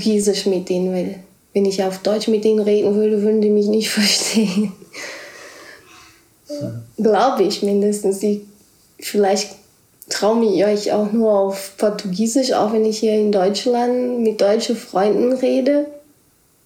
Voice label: portogiesisch brasilien

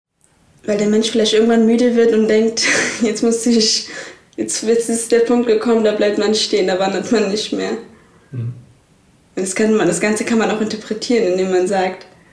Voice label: kurdisch

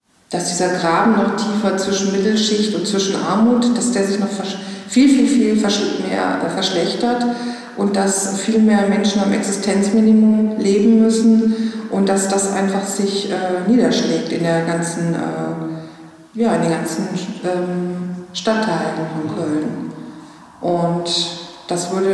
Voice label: hessisch

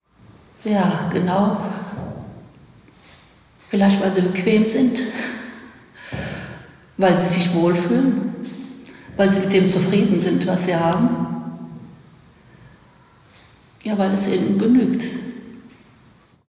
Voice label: rheinlaendisch

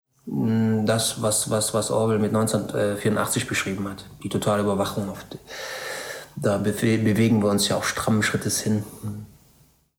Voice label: kroatisch

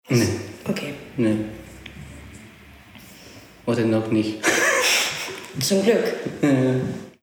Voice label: spanisch ecouador

